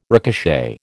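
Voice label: "ricochet", American English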